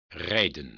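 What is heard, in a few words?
"rijden", Dutch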